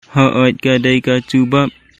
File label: Khasi; It is the answer.; Haoid kadei ka jubab.